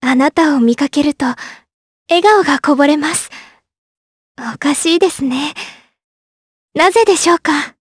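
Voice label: voice dia, voices heroes, Japanese; Talisha; kingsraid audio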